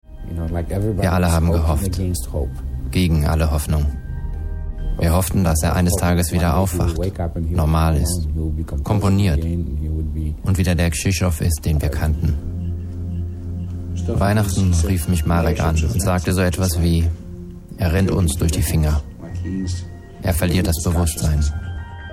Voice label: VOICE OVER DOKUMENTARFILM; ARTE

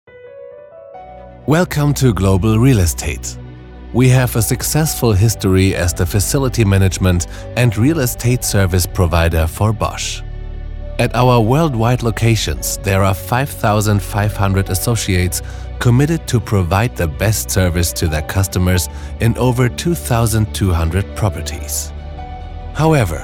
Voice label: Imagefilm ENG; Bosch; LOFT VOICES

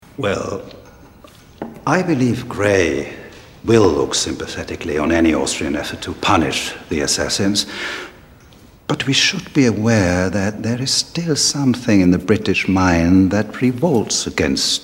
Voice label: English Serie; BBC-Serie

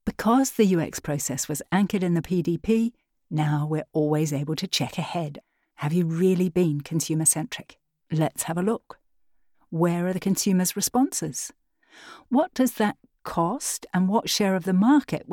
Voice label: E Learning lively intelligent

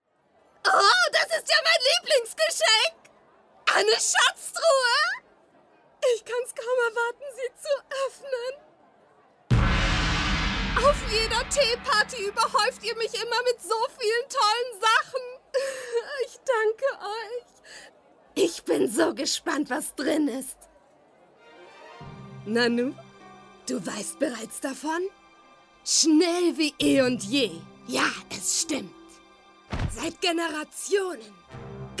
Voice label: Anime

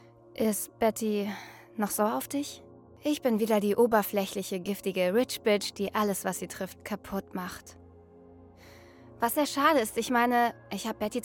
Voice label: Synchron, Lip-Sync, German; Riverdale - Hörprobe

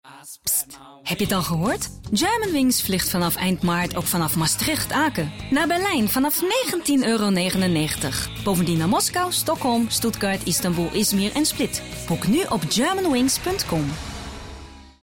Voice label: nl; hamburg

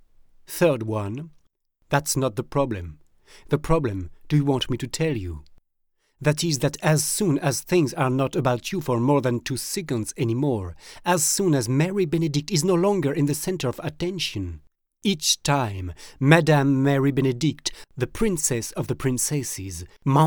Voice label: english; LOFT VOICES

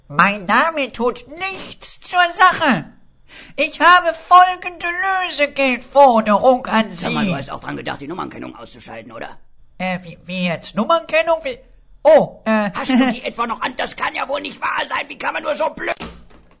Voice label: comedy; fridolinschnurps; LOFT VOICES

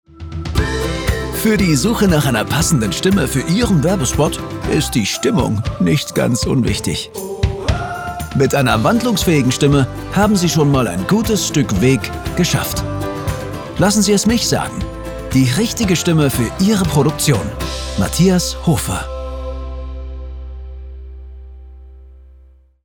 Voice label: Werbung happypeppy

